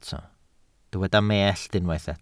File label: "mellt", welsh